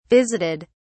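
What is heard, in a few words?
"visited", English, British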